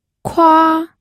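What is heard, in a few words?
"kua", first tone, pinyin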